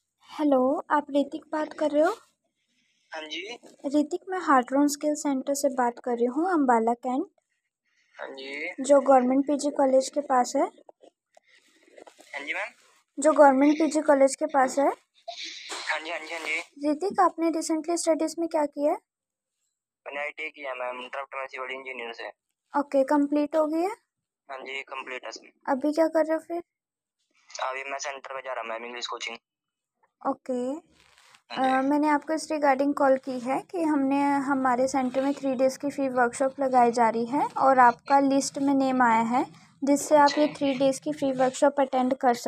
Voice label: call recording